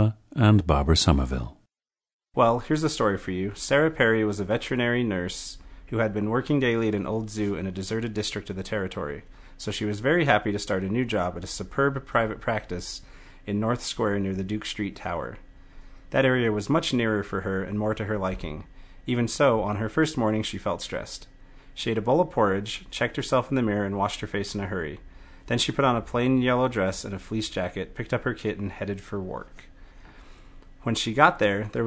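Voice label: utah, International Dialects of English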